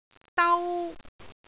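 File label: "dau1", Cantonese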